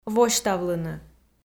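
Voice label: "восьтавлыны", Komi, female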